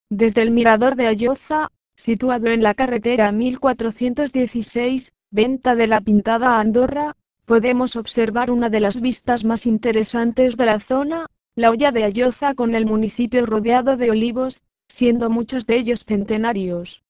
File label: Castellano; Mirador de Alloza